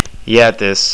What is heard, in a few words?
ice cream, estonian